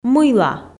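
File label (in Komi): "мыйла", Komi, female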